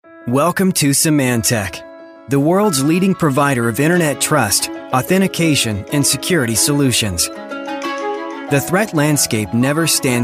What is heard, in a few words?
american male corporate